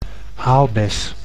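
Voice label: "aalbes", Dutch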